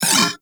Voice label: Vocal Pain; Warframe; Corpus; Arisoctrats; Mini Moa